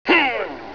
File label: monk growl